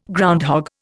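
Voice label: "groundhog", Indian English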